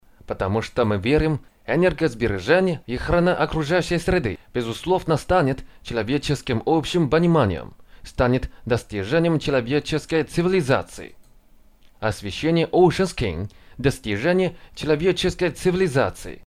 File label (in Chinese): 俄语